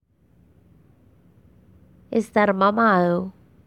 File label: Medellin Slang Expression